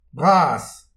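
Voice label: "bras", Breton; petit precis de prononciation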